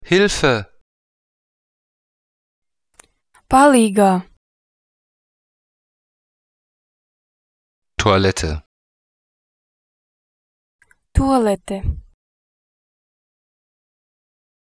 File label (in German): lettisch